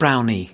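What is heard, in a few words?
"brownie", US English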